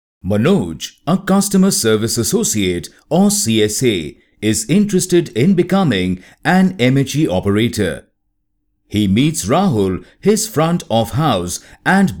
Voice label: elearning Retail